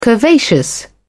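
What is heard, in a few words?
"curvaceous", British English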